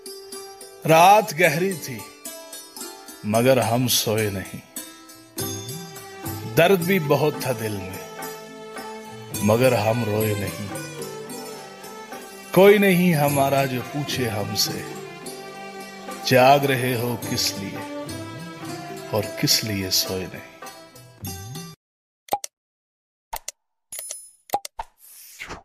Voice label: Best Shayari Ringtone